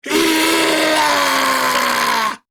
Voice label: Attack Grineer Ghoul Sargeant, en